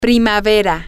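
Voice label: spring, Spanish, female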